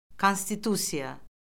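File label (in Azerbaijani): "konstitusiya", Azerbaijani; Orfoqrafiya Lüğəti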